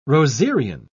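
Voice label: "rosarian", British English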